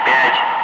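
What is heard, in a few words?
"5", spoken; Ka-50; Wingman; Digits; DCS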